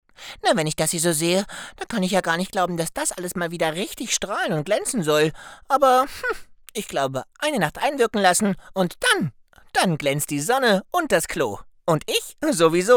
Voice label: Zeichentrick Comic Werbung

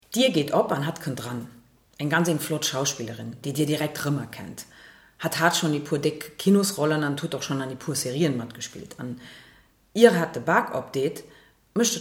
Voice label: Luxemburgisch; LOFT VOICES

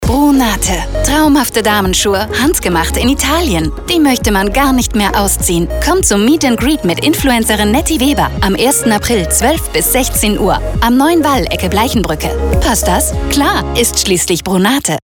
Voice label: Funk Spot; Brunate

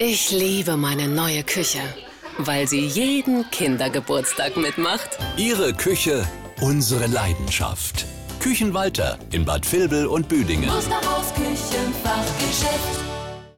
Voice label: szene funk; musterhaus kuechen

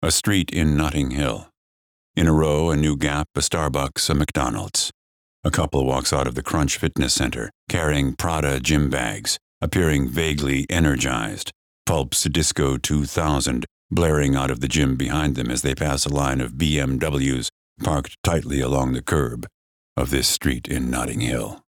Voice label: erzaehlung; notting hill

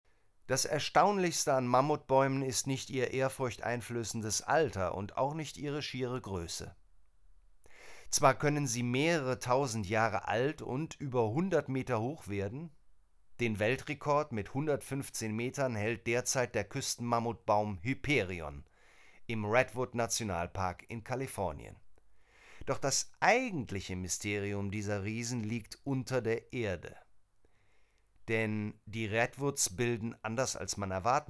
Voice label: Sachbuch, Audiobook (Hörbuch), German

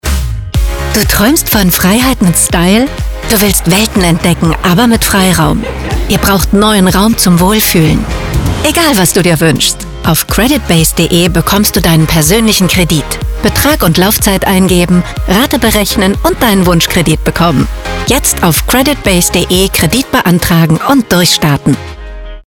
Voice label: Werbung Kredit

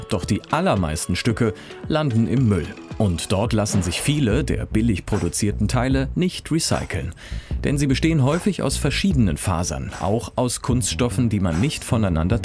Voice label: OFF Sprecher; Fast Fashion; LOFT VOICES

